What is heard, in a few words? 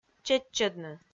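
"чеччӧдны", Komi, female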